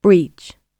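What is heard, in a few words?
"breach", English; oxford api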